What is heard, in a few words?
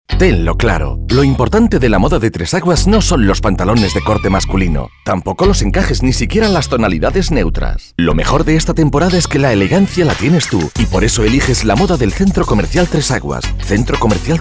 Radio